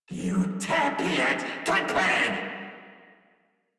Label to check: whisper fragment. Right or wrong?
Wrong